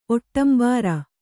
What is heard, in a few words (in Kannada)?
"ಒಟ್ಟಂಬಾರ", Kannada